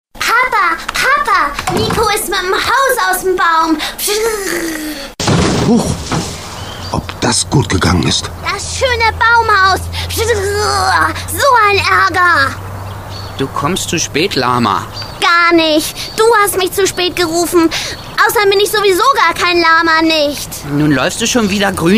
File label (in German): Kinderstimme Hoerspiel; kinderstimmen; Die Budnianer